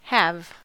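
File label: "have", American English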